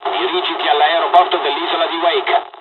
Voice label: mission fly, dialogs italian; War Thunder

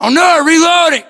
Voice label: inform reloading, battlechatter, English, US

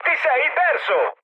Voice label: bomb failure mood high, speech it; War Thunder

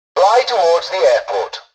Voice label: tutorial dialogue, english; War Thunder

